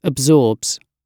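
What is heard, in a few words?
"absorbs", British English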